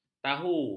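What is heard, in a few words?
"tahu", Malay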